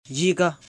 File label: "Yega", Lotha; Will come